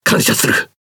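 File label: Speech Quick Commands Thank You, Japanese; World Of Warships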